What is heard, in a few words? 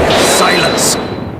silence; blackquill